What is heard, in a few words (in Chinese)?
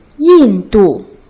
"yin4 du4", Putonghua; Uni1Lesson2